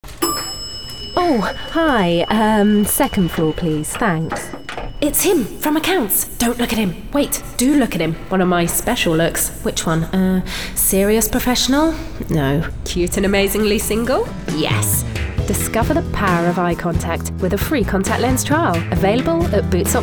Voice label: Commercial Showreel